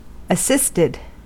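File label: "assisted" English, US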